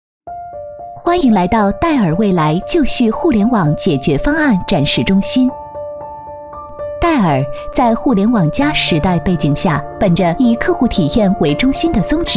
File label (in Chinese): 女 专题 产品介绍; 戴尔互联网展示中心